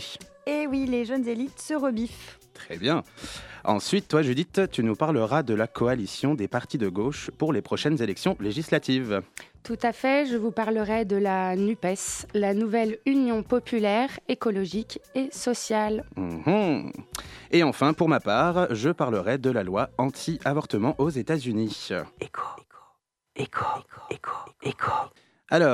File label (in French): Émission Radio; Échos Musicaux